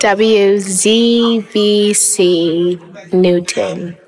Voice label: FEMALE LEGAL DRY; recorded at Cybersound